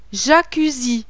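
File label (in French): "JACUZZI", French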